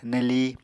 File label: "neli", apatani